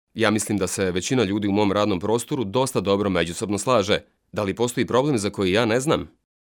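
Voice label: bosnian male voice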